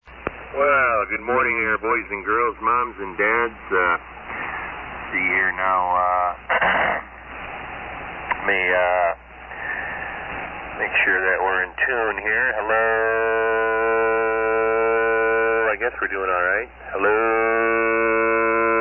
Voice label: HT-32 SX-101 MKIII HT-33A Amp; N. Fond Du Lac

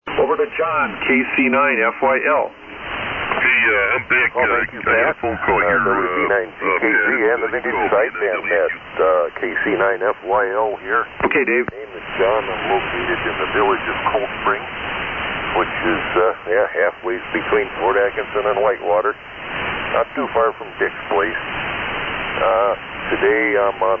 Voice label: S-Line & 10-D Mic; Fort Atkinson, Wi.

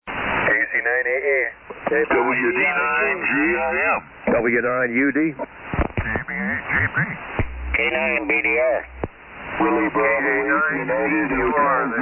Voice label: SSB Net Early Check Ins

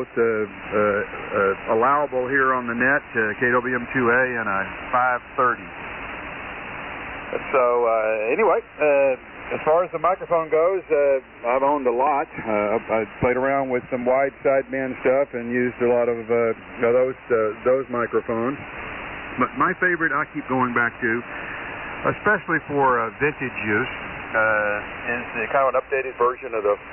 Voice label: Kenwood TS-530S and Shure 522; Lake Geneva, WI.

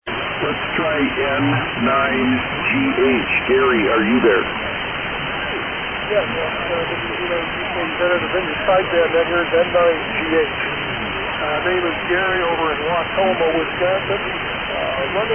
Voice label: Yaesu FT-101B & SB-200 Amp; Near Wautoma, Wi

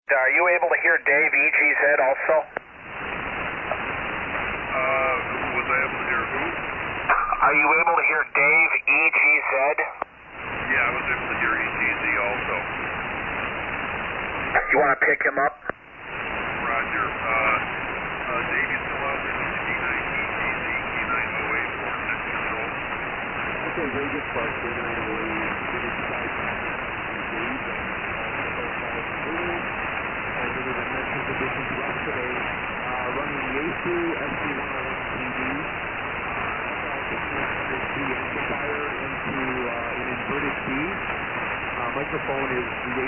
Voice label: Yaesu FT-101ZD & FL2100 Amp; McFarland WI.

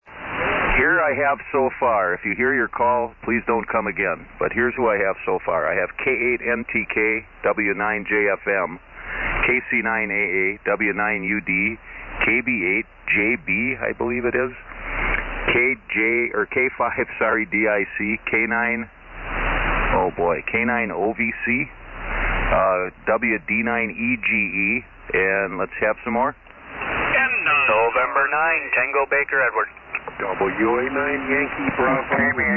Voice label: SSB Net Check Ins

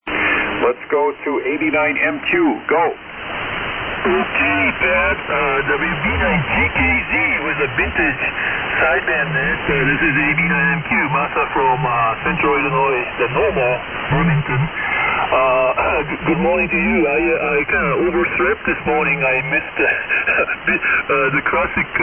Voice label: Yaesu FT-101B & Yaesu FT-2100B Amp; Westmont, IL.

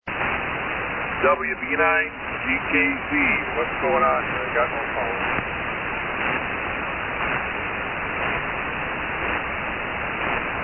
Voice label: Hallicrafters HT-37 & SX-111; Greenleaf,Wi.

